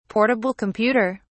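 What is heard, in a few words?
"portable computer", British English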